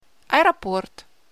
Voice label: "Airport" In Russian